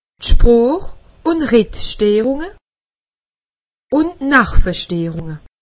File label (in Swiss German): "sproochsterrunge", alsace; medecine